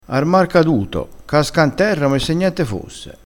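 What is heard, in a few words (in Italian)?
"mar caduto", dialettale crespinese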